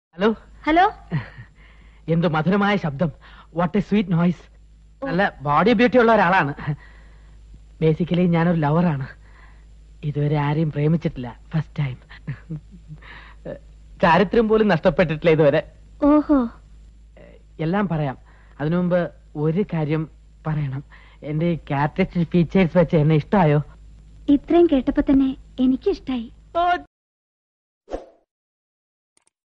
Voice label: malayalam comedy dialogue; innocent